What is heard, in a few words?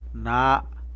"na", tlacolula; hand